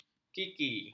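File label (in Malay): "kili", Malay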